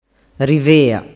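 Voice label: "rivea", Sicilian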